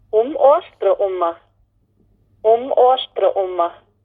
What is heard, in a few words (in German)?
Mundart; tannheimertal